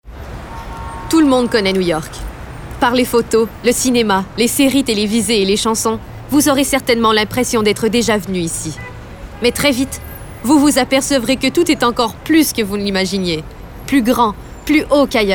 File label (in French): NARRATION; NY